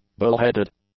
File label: "bullheaded", English; adjectives